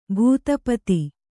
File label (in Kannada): "ಭೂತಪತಿ", Kannada